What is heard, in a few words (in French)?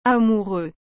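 "amoureux", French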